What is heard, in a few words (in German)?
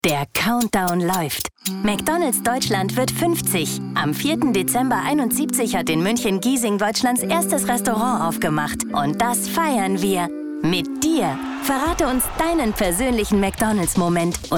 funkspot; mcdonalds